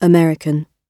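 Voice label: "american", British English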